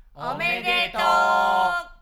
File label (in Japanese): やる気のないおめでとう